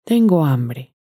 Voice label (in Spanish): tengo hambre, Spanish